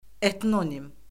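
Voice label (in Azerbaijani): "etnonim", Azerbaijani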